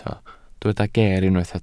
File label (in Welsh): "geer", Welsh